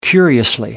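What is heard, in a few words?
"curiously", British English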